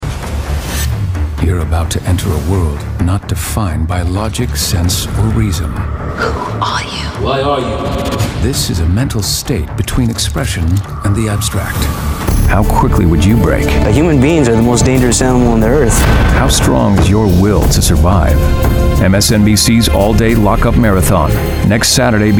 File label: Promo